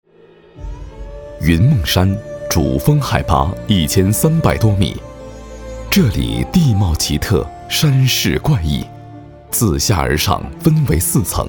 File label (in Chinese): 男 纪录片 纪录片风格; 云梦山